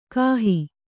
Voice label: "ಕಾಹಿ", Kannada

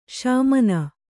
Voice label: "ಸಮಾನ", kannada

